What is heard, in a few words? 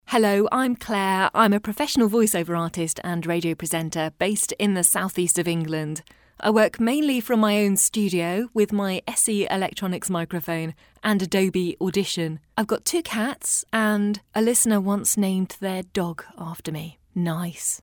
intro and studio sample